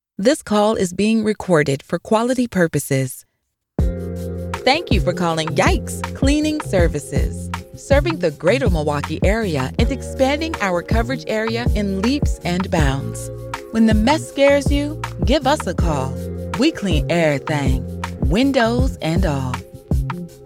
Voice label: English Voice Over